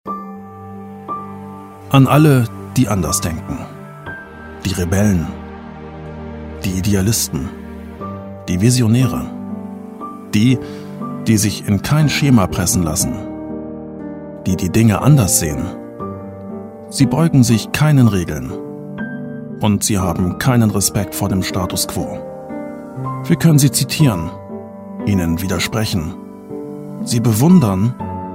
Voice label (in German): Werbung